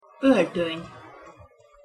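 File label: "oltony", Hungarian pronunciation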